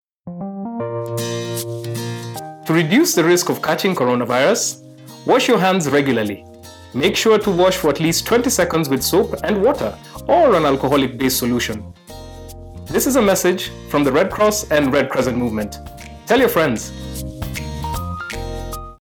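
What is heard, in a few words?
Covid19 Radio Spots English Male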